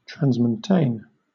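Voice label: "transmontane", British English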